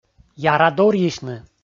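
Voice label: "яра дорйысьны", Komi, male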